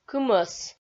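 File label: "кымӧс", Komi-Zyrian, female; kpv audio dict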